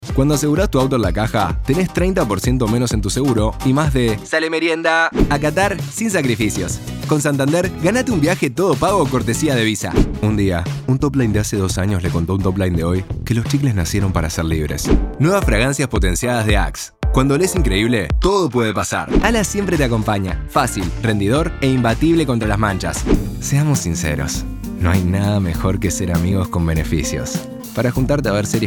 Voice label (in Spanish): Comercial Uru